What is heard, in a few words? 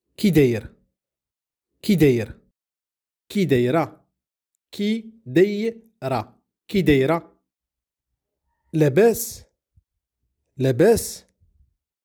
how to say how are you in darija moroccan arabic